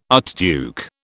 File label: "archduke", British